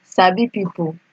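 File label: "sabi people", Nigerian Pidgin, female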